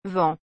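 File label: "vent", French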